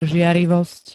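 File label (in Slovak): "žiarlivosť", Slovak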